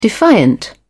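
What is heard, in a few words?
"defiant", British English, dictionary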